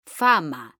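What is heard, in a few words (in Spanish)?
"FAMA" Spanish, female; mekanta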